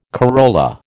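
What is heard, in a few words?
"corolla", American English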